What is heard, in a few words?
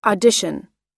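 "audition", US English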